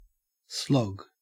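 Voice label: "slog", Australian English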